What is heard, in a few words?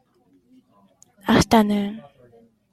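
"afternoon", Japanese speaker of English, female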